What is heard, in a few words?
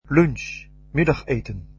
"lunch", Dutch